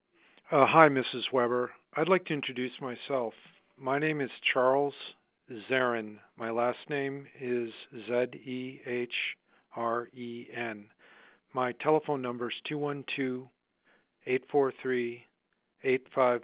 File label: voice message